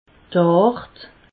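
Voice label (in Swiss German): "tart", Alsatian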